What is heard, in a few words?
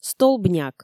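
"столбняк", Komi, female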